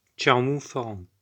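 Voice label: "Clarmont-ferrand", Occitan, Auvergnat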